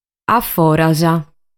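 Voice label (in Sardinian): "Aforas", Sardinian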